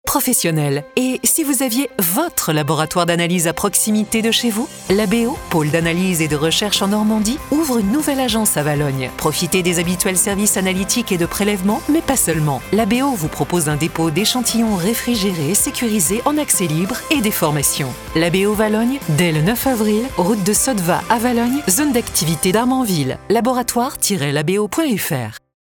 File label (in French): Spot Radio; LABÉO AGENCE DE VALOGNES